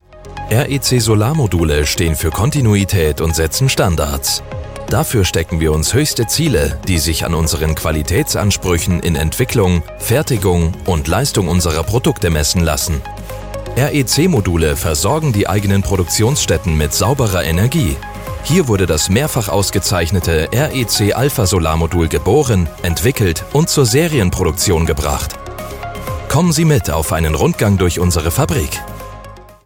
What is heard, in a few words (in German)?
Solar Imagefilm; REC; LOFT VOICES